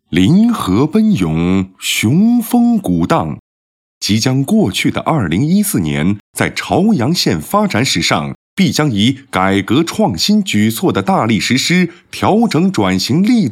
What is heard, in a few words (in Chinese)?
男 专题 汇报 磁性; 朝阳县2014年工作回顾